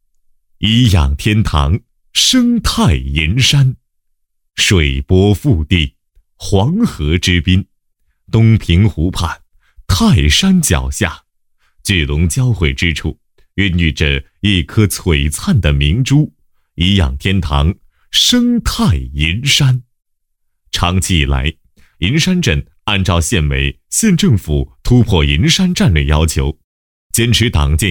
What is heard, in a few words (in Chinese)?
男 宣传片; 颐养天堂; 生态银山